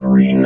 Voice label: "GREEN", vox robot